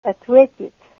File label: "answer", Ninilchik Russian